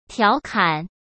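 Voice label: "调侃", Mandarin Chinese; chinese-flashcards; hsk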